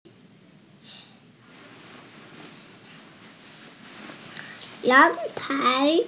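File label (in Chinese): "yang2tai2", Mandarin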